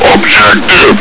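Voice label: "objective!", male; hl2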